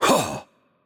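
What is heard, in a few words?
Spanish hurt light voice; bloom minigame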